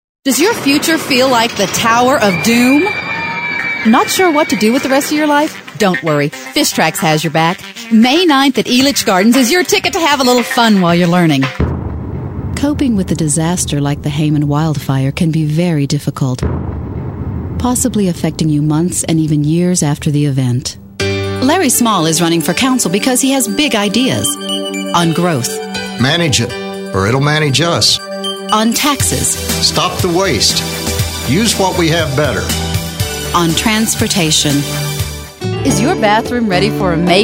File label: English, females